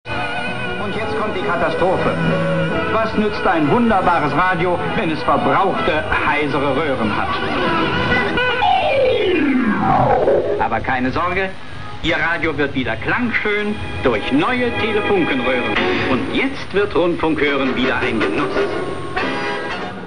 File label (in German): telefunken werbung roehren besser; radio